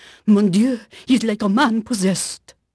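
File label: French female; Pirates Of The Caribbean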